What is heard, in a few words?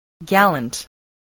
"gallant", voice, English